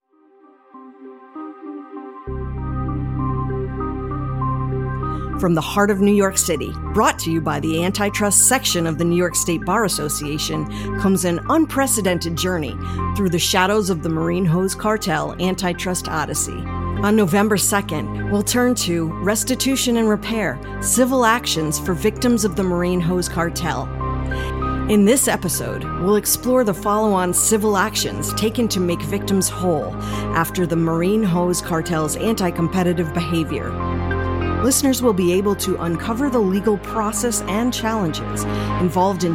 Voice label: AUDIO TRAILER